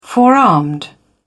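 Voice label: "forearmed", en, uk British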